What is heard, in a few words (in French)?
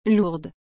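"lourde", French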